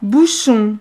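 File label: "bouchon", French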